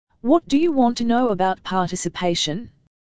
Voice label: dialog